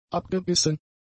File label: "abgebissen", German; adjectives